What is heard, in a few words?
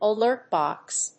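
"alert box", English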